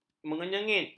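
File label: "menyengit", Malay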